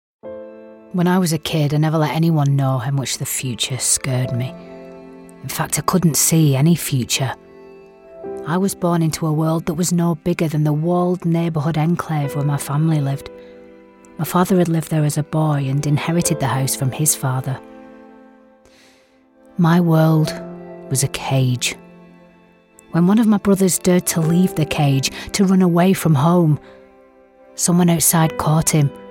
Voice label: audiobooks narration, Northern Authentic Lancashire Voice